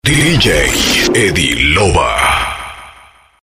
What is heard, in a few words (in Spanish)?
VOZ OFF